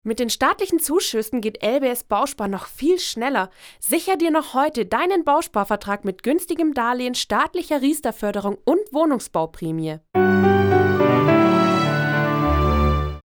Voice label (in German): Werbung Reminder; Sparkasse